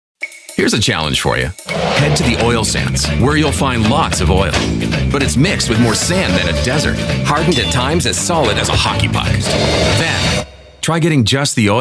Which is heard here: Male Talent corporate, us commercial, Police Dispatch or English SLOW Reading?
us commercial